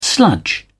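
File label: "sludge", English, British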